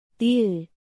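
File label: "ㄹ", Korean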